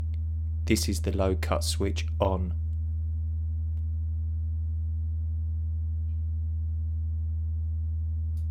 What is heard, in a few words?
low cut on